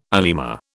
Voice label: "anima", British